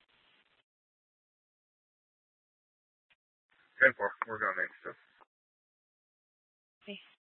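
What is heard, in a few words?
police department dispatch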